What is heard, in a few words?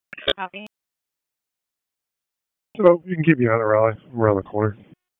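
Police Northeast District Disp, radio; Raleigh Police Northeast District Disp; wake p25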